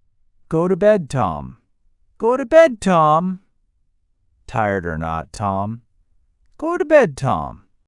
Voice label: male US

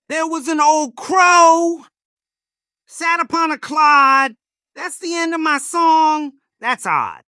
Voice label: male US, classic rhymes; There Was an Old Crow

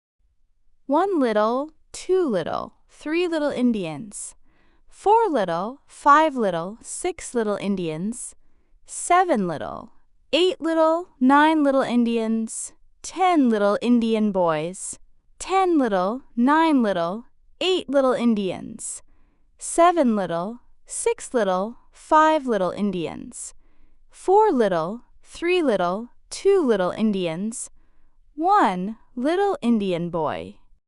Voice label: fem us; Three Little Indians

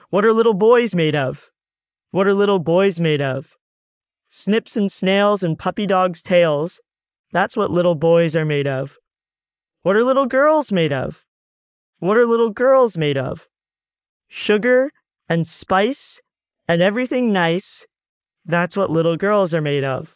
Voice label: male us; What are Little Boys Made Of

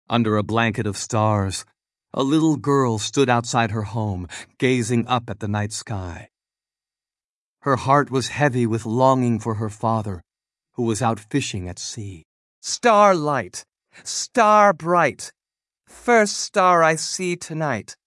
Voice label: male us story; Star Light Star Bright

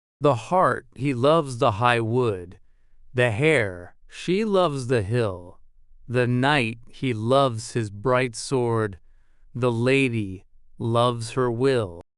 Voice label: male US, classic rhymes; The Hart He Loves the High Wood

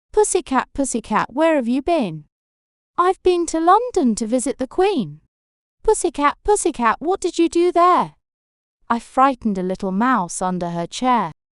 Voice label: Pussycat Pussycat fem brit

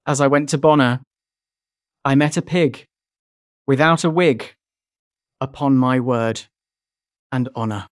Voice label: As I Went to Bonner male brit

